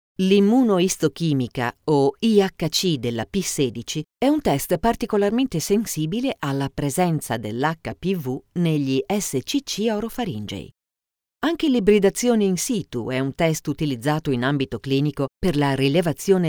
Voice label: medical Narration